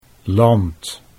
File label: "land", Dutch